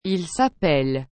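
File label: "ils s'appellent", French; appeler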